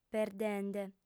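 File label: "perdende", Sardinian, female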